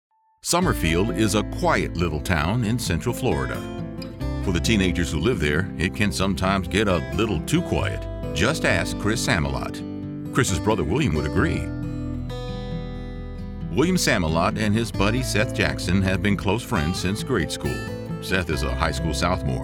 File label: True Crime Narration